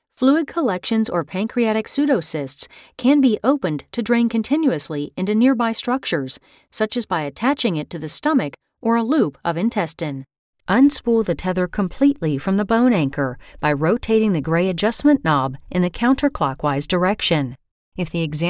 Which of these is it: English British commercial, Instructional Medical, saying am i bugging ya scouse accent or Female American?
Instructional Medical